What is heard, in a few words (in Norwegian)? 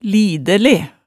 "lidæle", hemsedalsmaalet tale